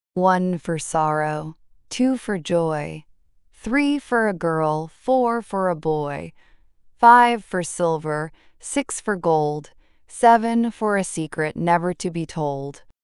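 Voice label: One For Sorrow, female US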